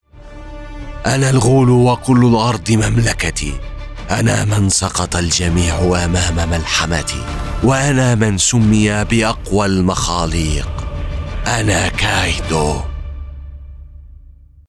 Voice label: Arabic; arabic-voice-over-talent

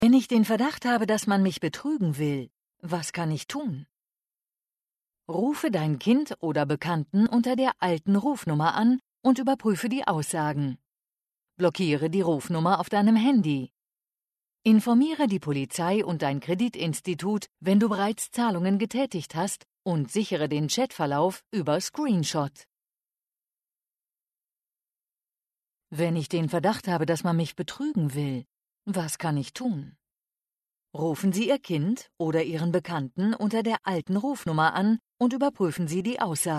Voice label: dutch explainer videos

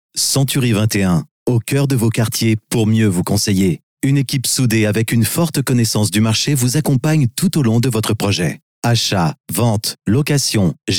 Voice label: french commercial; France; professional native french male voice actor

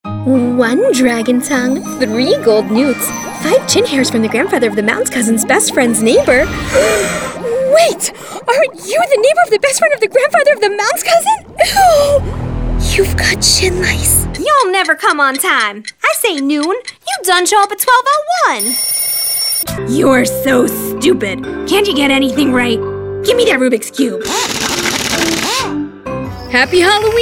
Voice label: english american animation

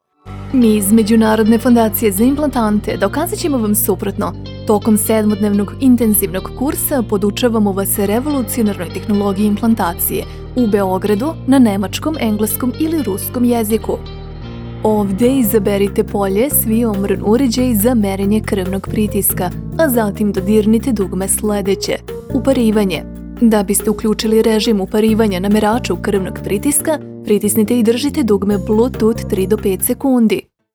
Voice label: serbian tv shows; Professional Serbian native voice-over actor from Belgrade

